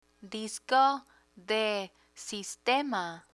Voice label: "disco de sistema", Spanish